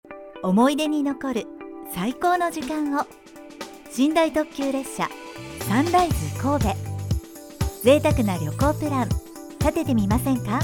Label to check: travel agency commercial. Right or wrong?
Right